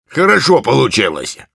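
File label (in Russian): Engineer cheers, Russian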